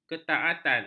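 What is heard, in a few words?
"ketaatan", Malay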